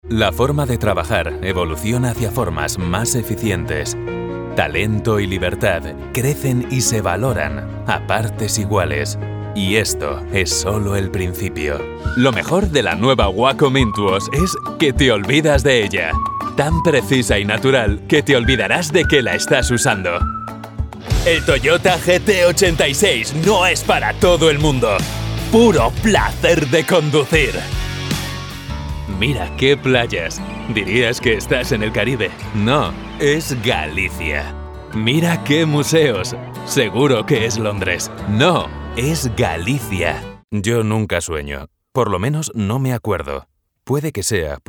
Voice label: 30s Spanish Commercial; Male

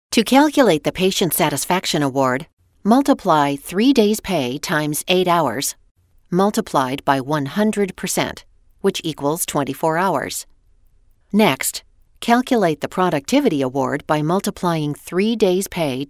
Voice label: 40s 50s Female Narration

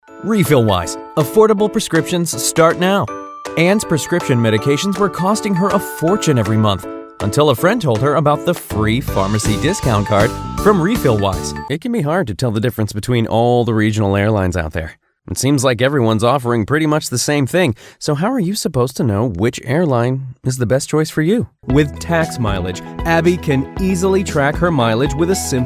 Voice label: 20s30s Male Narration

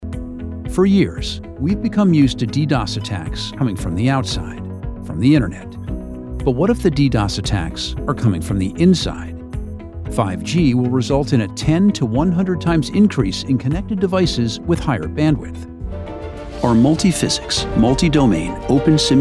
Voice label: 40s Male Narration

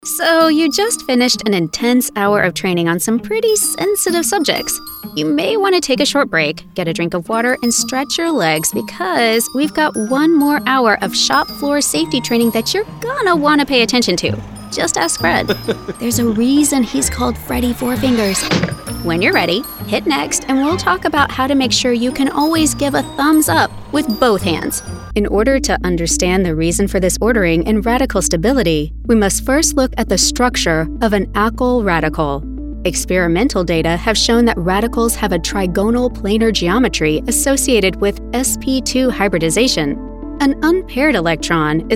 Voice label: 30s40s Female eLearning

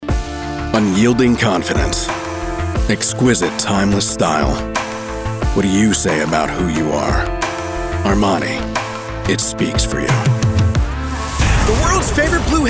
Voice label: 20s30s Male Commercial

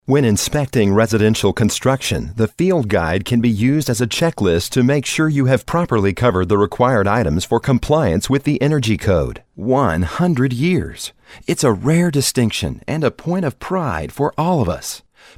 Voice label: 40s50s Male Narration

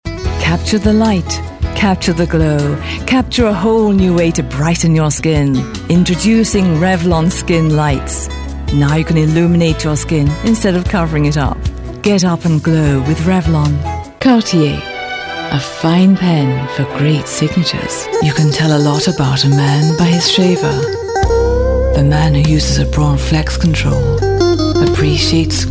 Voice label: 30s 40s Female British

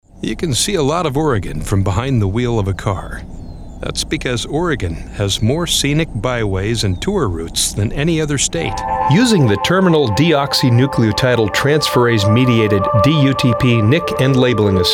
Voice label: 40s Male Commercial

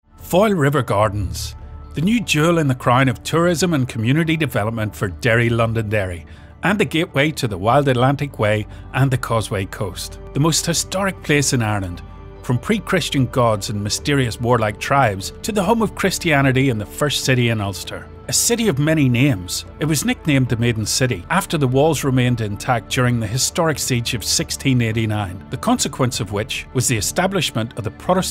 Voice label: 30s 40s Male Irish Narration; Ethnic Accents

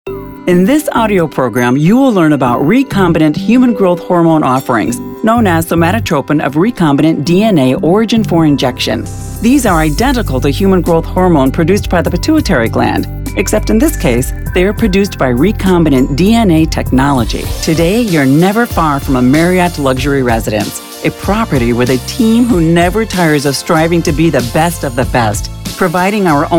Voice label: 40s 50s Female Narration

